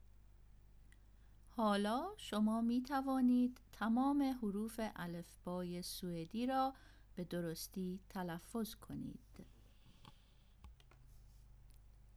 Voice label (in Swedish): inledning, svenskabok